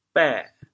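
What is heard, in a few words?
"bê6", Teochew, chaoyang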